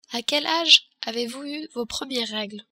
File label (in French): premier regles, french